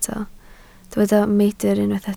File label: "meidr", welsh